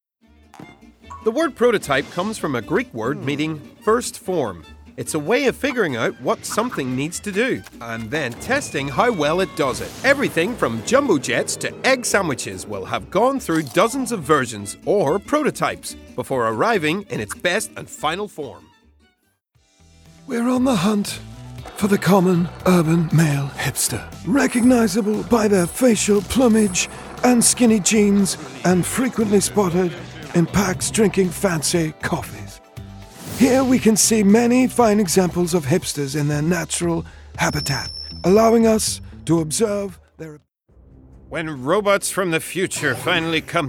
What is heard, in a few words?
Voiceover